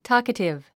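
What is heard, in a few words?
"talkative", English word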